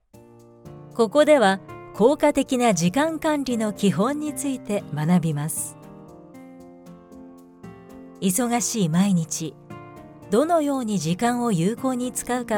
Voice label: Japanese e-learning

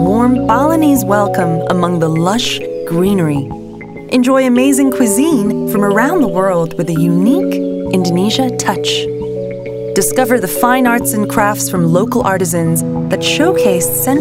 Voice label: Asian English Ubud Holiday

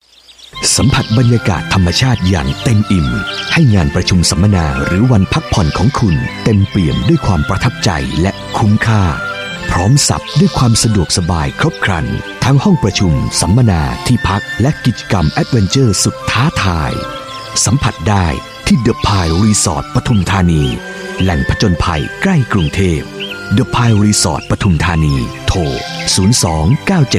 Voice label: Thai Commercial

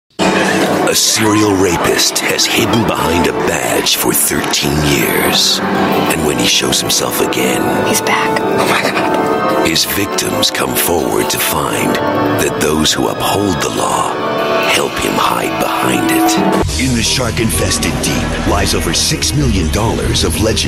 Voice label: US English Trailers

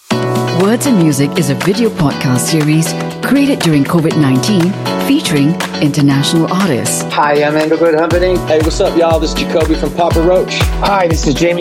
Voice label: Asian English Trailer

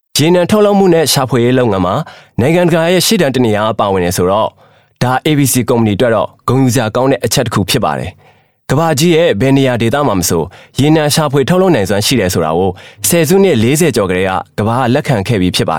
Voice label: Burmese m Marketing

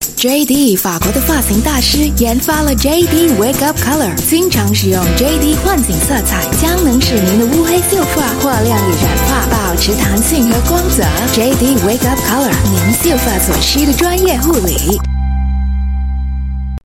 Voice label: Mandarin F; China

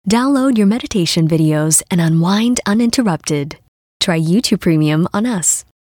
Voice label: Asian English Meditation

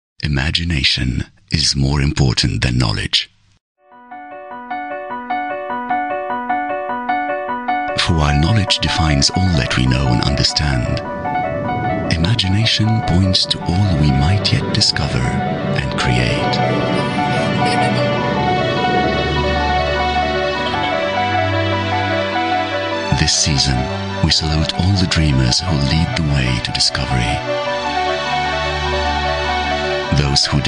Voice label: French English; Disney

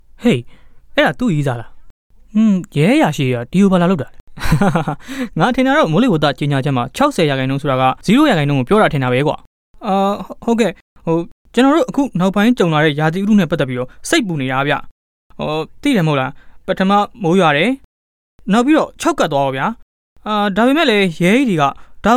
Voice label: Burmese Male

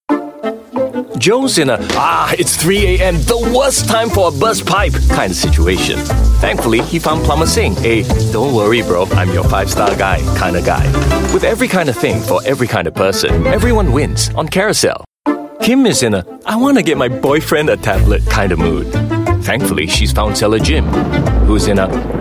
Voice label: Asian English Voice

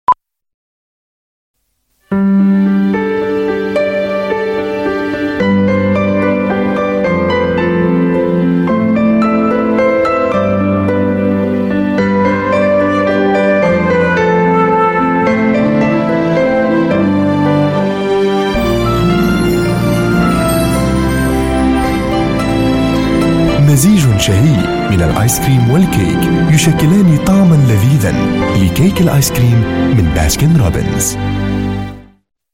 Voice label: Arabic Male

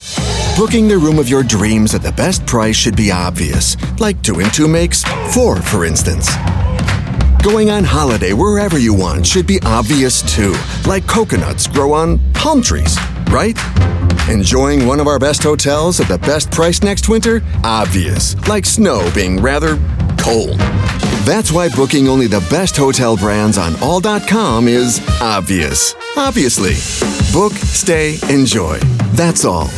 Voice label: US English; ACCOR Thats All

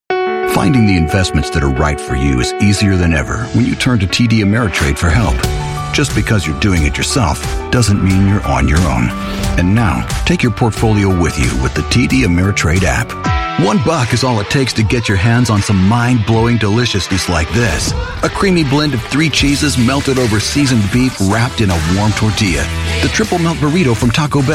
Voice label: US English Commercial

